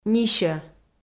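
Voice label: "нисьӧ", Komi-Zyrian, female; kpv audio dict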